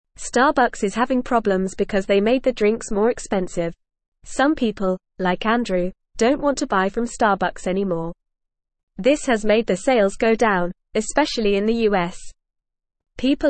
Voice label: English FAST Reading; Starbucks Faces Sales Drop and Customer Anger

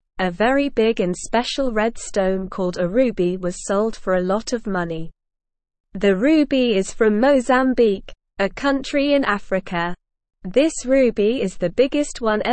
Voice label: English Newsroom Beginner SLOW Reading; Big Red Ruby Sold Money Helps People

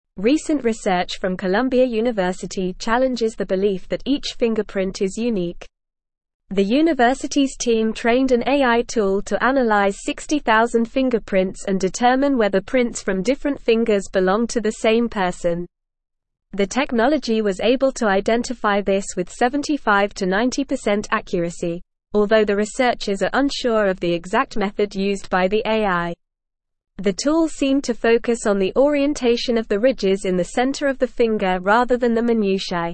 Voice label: English Newsroom Advanced NORMAL Reading; AI Tool Challenges Belief in Unique Fingerprints

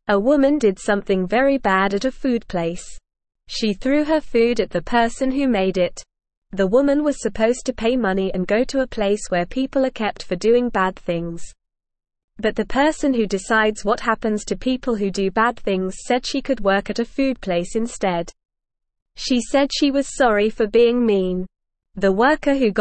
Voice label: English Beginner NORMAL Reading; Woman Throws Food Gets Second Chance at Work; English Newsroom

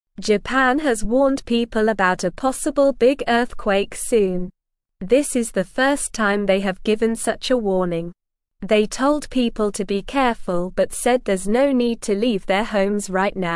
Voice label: English Lower Intermediate SLOW Reading; Japan Warns People About Possible Big Earthquake; English Newsroom

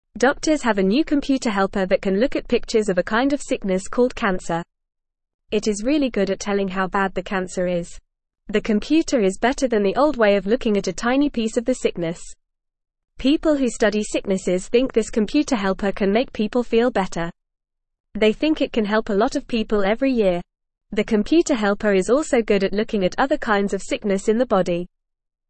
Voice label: English Newsroom Beginner FAST Reading; New Computer Helps Doctors Look at Sickness Pictures

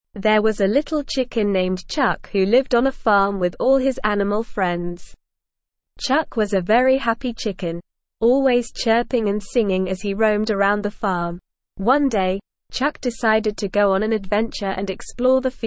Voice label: ESL Short Stories for Kids SLOW reading; Chuck The Chirping Chicken

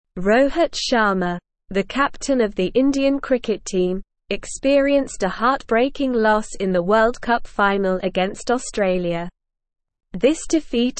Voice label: English Newsroom Advanced SLOW Reading; Rohit Sharma The Unsung Hero of Indian Cricket

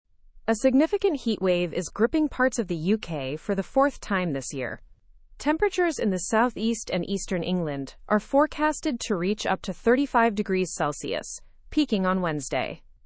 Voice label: english through news upper intermediate; uk faces fourth significant heatwave this year

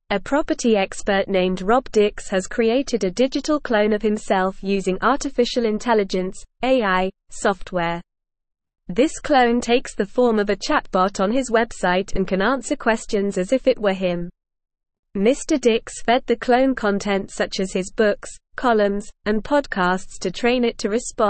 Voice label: English Advanced NORMAL Reading; English Newsroom; UK Property Expert Creates AI Clone to Streamline Workload

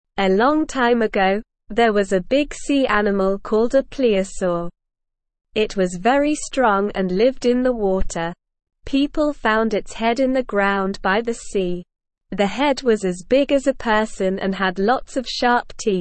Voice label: English Beginner SLOW Reading; English Newsroom

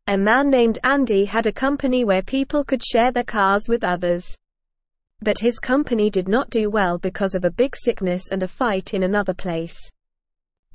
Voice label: English Beginner NORMAL Reading; Andys Car Sharing Company Faces Big Problems; English Newsroom

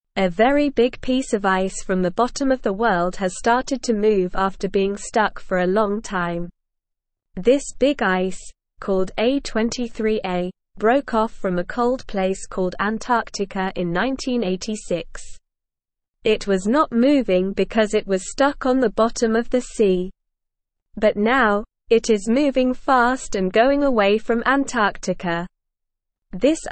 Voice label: English Beginner SLOW Reading; Big Ice from Antarctica Starts Moving After Being Stuck

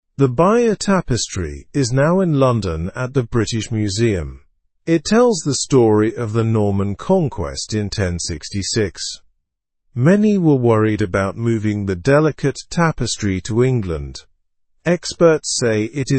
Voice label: english news lower intermediate slow; bayeux tapestry exhibited in london

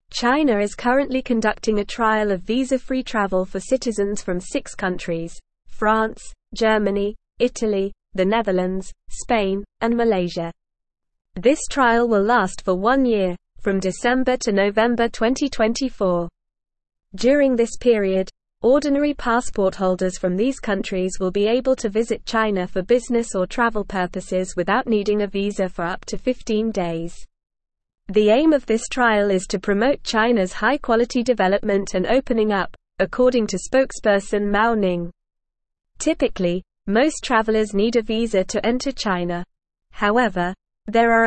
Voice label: English Advanced NORMAL Reading; English Newsroom; China Trials Visa Free Travel for Six Countries

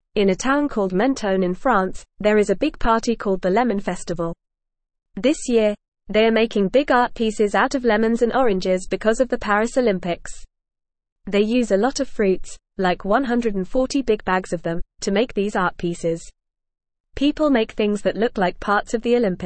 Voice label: English FAST Reading; Big Lemon Festival Celebrates Paris Olympics with Fruit Art

